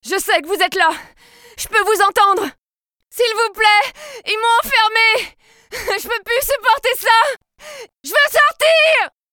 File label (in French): jeux video prisonnière effrayée et hurlante